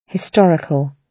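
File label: "historical", British English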